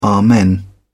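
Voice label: "amen", English, British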